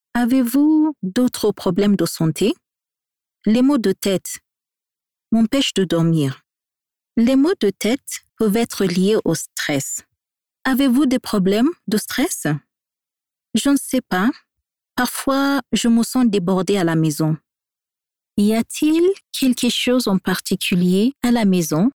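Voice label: African French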